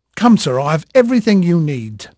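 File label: English male, voice; Pirates Of The Caribbean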